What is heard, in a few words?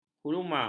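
"huma", Malay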